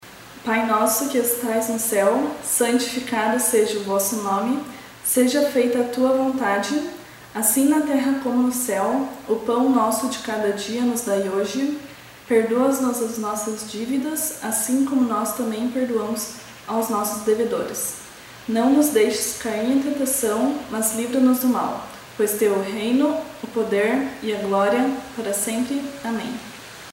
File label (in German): Vaterunser Portugiesisch